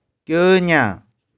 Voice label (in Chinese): "kieu2niang1", 玉環